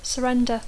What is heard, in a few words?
"surrender", English; Dacco